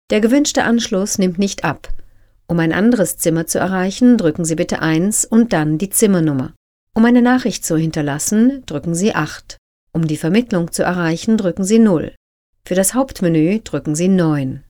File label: German; קריינות